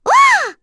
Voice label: Vox, en; kingsraid; voices heroes